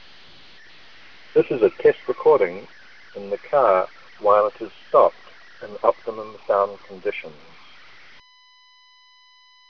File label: airlite900 quiet, bluetooth